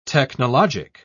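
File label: "technologic", British English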